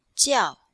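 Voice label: "jiao4", Chinese, female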